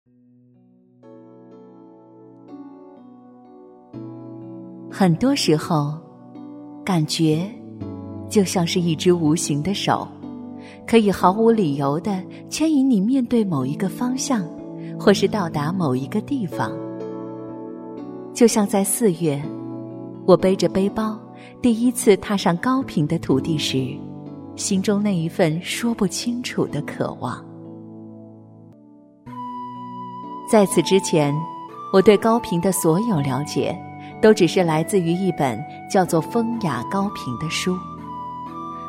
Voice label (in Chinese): 女国 纪录片 旅游; 风雅高平(旅游卫视)